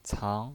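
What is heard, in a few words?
"cang", Mandarin Chinese, second tone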